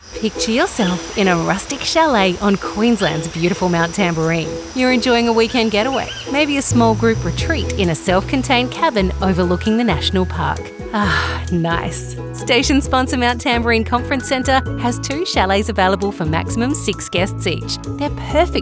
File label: radio advert; MTCC; Chalets